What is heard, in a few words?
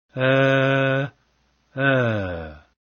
o curl a hat, vietnamese vowels